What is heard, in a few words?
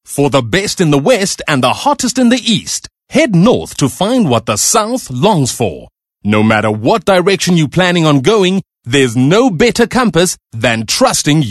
English Hard Sell